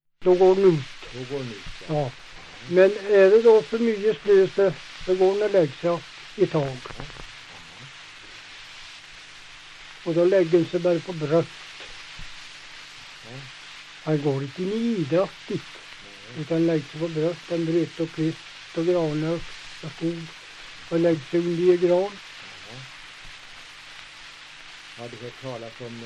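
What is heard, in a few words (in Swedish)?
Hede Härjedalen, dialekter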